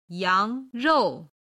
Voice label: "yang2rou4", chinese